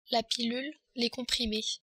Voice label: "pillule", french

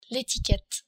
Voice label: etiquette, french

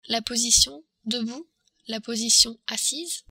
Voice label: "position", french

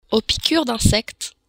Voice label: piqure d insecte, french

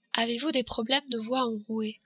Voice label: problemes voix, french

